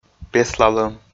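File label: "песлалӧм", Komi, male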